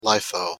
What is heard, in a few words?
pronunciation en lifo